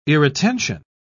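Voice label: "irretention", British English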